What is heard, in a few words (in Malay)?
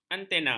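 "antena", Malay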